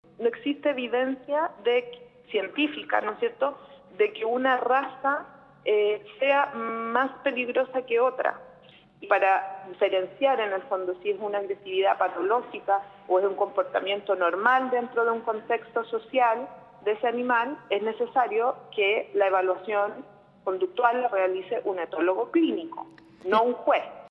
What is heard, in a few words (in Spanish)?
reportaje; ubilla